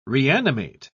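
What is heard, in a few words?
"reanimate", British English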